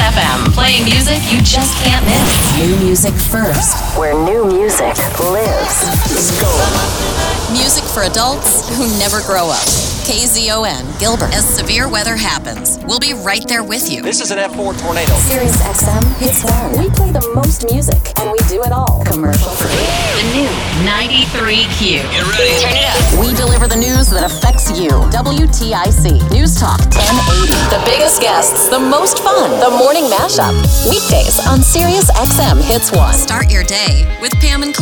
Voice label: Radio Imaging

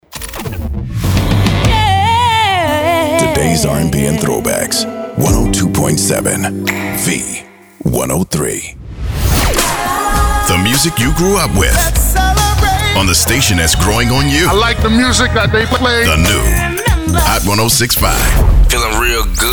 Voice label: Imaging; UAC

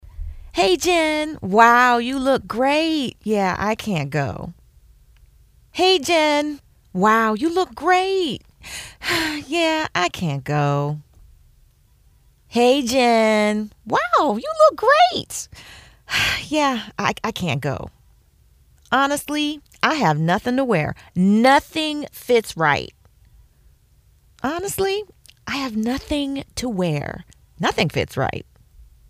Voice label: Female

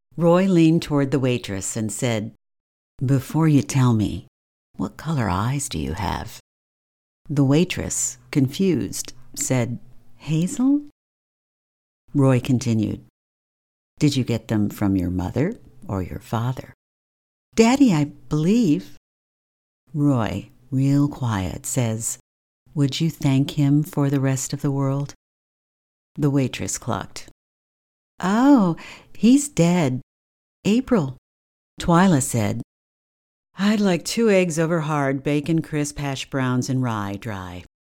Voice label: AUDIOBOOK NARRATION

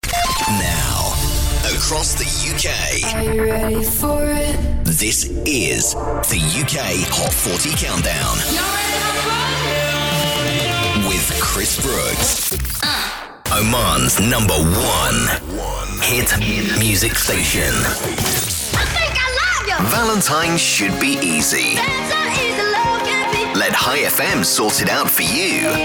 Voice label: Top 40 Imaging Voiceovers

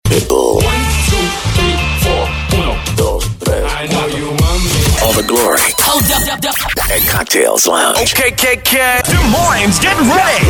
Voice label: Voice Over

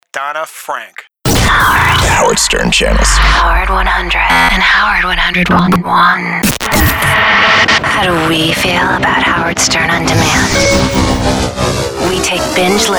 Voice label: TALK IMAGING

